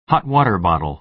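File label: "hot-water bottle", American English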